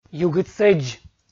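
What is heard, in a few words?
"югыдсӧдз", Komi, male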